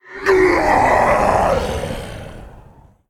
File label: Male Elemental Death